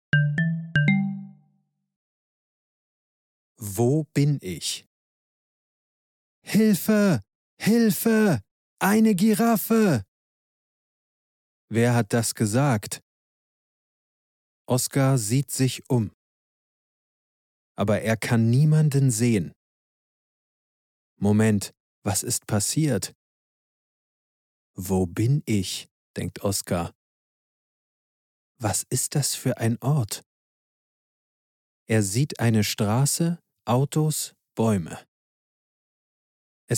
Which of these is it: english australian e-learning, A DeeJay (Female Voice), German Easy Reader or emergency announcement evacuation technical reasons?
German Easy Reader